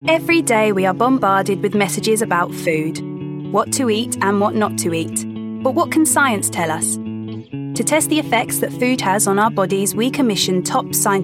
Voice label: Documentary